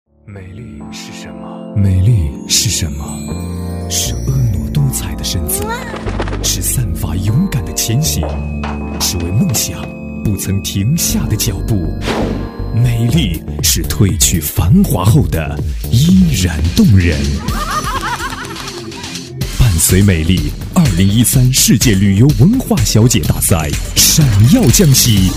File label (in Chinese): 宣传片 洋气 诱惑 文化 旅游; 世届文化旅游小姐